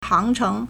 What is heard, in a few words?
"hang2cheng2", Chinese; 航程 hángchéng; flight; journey; Transportation